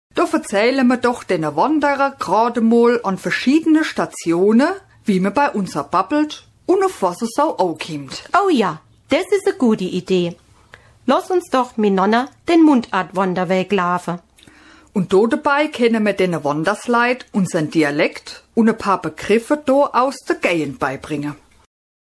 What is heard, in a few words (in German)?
Mundartkurs; Juhoehe